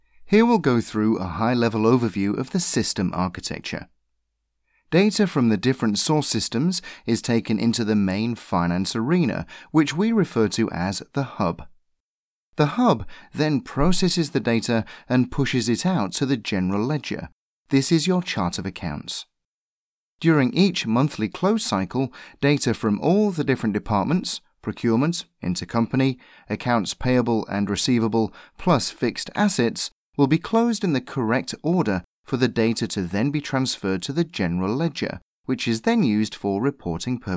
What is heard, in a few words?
ELearn sample